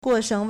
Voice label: "guo4sheng4", Chinese; 过剩; 過剩; guòshèng; surplus; excess

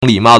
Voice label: "li3mao4", Chinese

